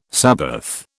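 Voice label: "sabbath", British English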